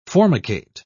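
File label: "formicate", English, British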